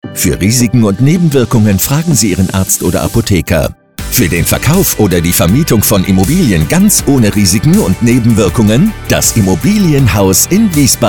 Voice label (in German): Radiospot; Image Juli